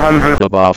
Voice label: 100 above, GPWS; A32X; GPWS-OLD